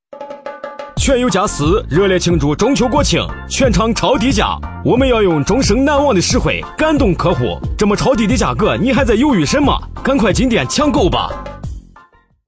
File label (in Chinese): 男66号山东方言 山东方言促销